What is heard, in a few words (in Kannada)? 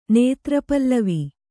"ನೇತ್ರಪಲ್ಲವಿ", Kannada